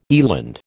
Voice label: "eland", American